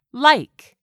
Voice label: "like", English; 3000 words